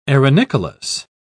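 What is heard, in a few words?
"arenicolous", English, British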